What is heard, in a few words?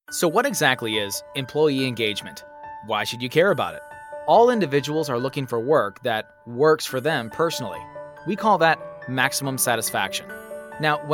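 male, American English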